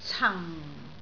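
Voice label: "cang4", Cantonese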